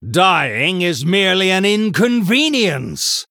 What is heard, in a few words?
Male Human Combat Loss